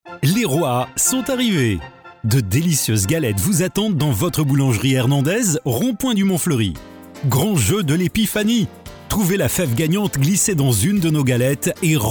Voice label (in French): PUB VOIX OFF